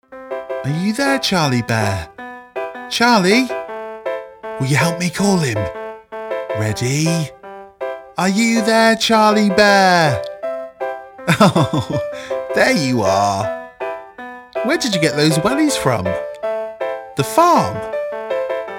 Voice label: Continuity; CBeeBies